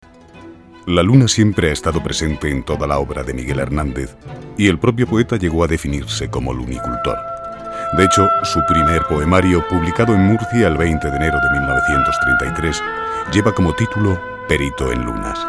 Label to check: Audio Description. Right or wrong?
Right